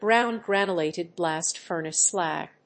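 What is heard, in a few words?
"ground granulated blast furnace slag", English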